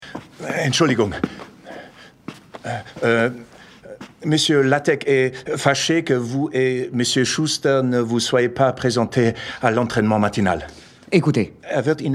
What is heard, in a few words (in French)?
voices doublage français allemand; maradona